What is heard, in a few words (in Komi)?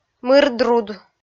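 "мырдруд", Komi, female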